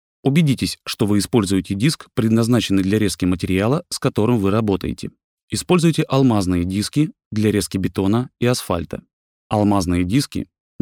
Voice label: Nachvertonung Film Sprecher Russisch

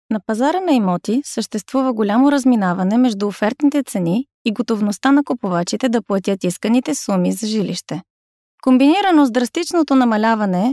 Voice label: Nachvertonung Film Sprecherin Bulgarisch

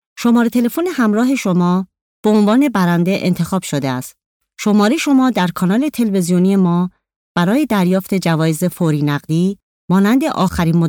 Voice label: Nachvertonung Film Sprecherin Persisch

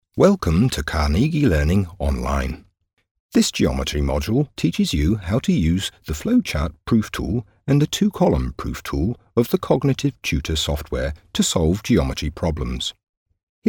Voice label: Sprecher Englisch UK; Nachvertonung Film

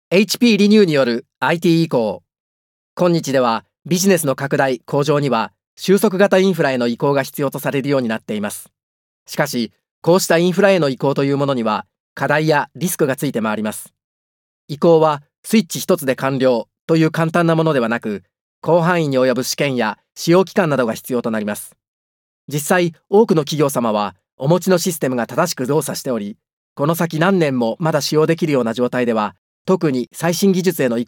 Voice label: Nachvertonung Film Sprecher Japanisch

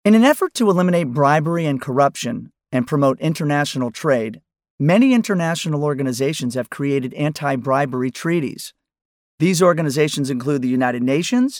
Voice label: Nachvertonung Film Sprecher Englisch US

